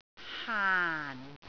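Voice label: "haan4", Cantonese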